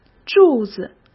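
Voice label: "柱子", Mandarin Chinese; hsk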